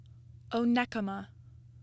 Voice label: "Onekama", Michigan pronunciation